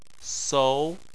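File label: "sou", Chinese, second tone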